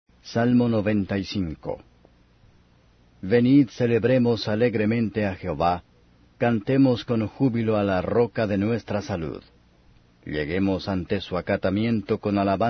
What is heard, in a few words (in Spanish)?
salmos spanish